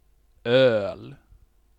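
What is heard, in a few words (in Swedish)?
"öl", Swedish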